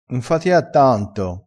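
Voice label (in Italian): "fatia", vocabolario dialettale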